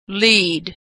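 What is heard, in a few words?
"lead", American English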